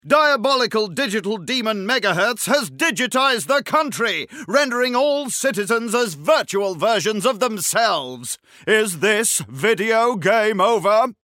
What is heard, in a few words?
narrator line; Danger Mouse - Ultimate